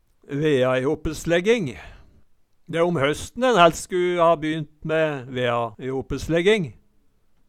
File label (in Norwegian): veaihopeslegging, Numedalsmål; De e om høsten en hælst sku ha bynt me veaihopeslegging